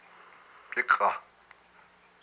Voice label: "some fat", hupa

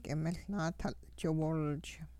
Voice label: cat, hupa

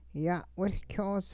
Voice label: he picked it up, hupa

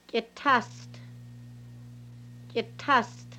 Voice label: acorn dough, hupa

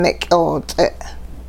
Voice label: its sinew, hupa

